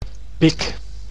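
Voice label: "pik", Dutch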